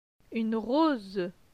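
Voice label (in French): "Une rose", French; phonetique; les voyelles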